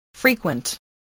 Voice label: "frequent", English voice, spelling

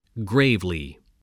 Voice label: "gravely", spelling

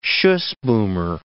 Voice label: "schussboomer", English

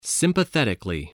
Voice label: "sympathetically", English

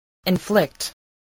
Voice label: "inflict", spelling voice

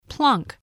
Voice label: "plunk", English

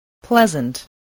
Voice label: "pleasant", English

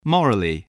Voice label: "morally", voice, spelling

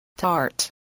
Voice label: "tart", English

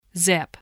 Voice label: "zip", spelling

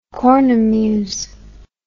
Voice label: "cornemuse", English

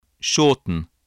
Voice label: "shorten", spelling

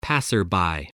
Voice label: "passer-by", English, voice

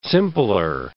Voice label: "simpler", words

